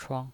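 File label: "chuang", Mandarin Chinese, neutral tone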